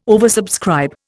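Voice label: "oversubscribe", Indian English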